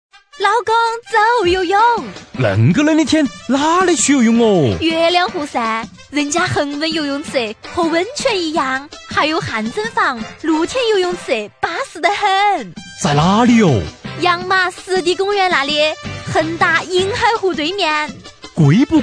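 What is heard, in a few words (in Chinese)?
男方言 男女合; 四川方言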